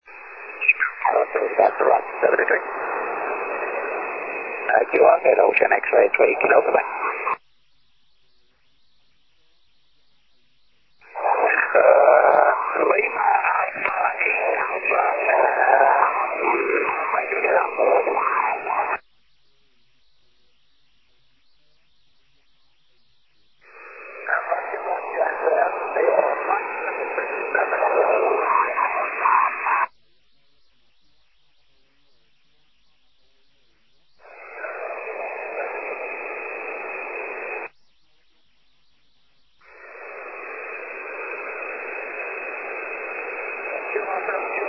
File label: 6m ssb